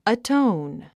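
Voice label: "atone", English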